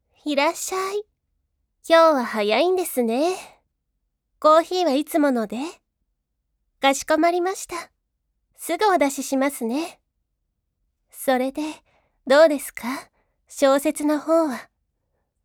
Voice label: セリフ 大人女性 ふんわり 色気; カフェ店員

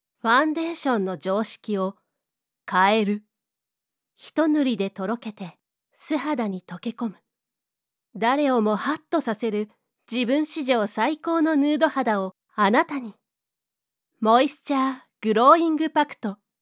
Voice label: CM 化粧品; グローイングパクト

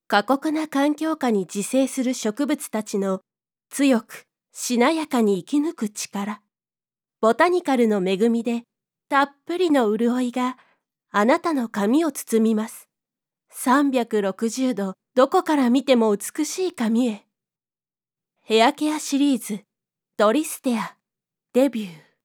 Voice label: CM シャンプー; トリステア

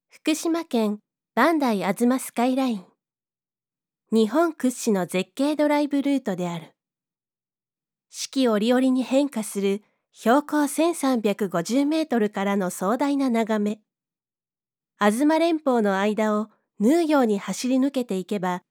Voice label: TV 旅番組; 磐梯吾妻

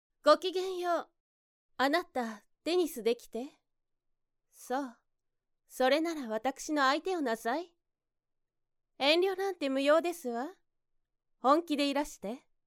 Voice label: お嬢様系

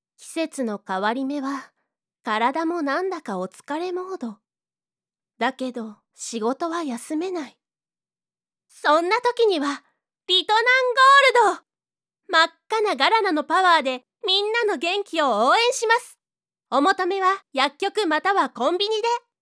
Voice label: CM 栄養ドリンク; リトナンゴールド

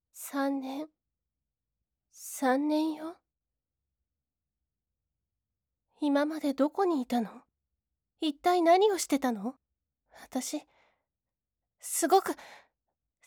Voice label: セリフ 大人女性 悲しい 泣き 怒り; 元カノ

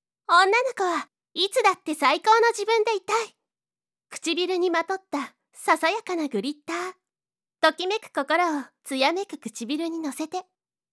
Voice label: CM 化粧品; シャイニールージュ

